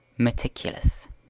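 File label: "meticulous", British English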